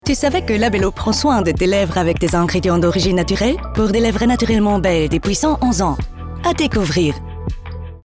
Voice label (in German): Funk TV Spot; Labello Frankreich